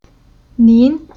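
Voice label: "Nin", Chinese syllable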